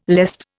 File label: "lest", Indian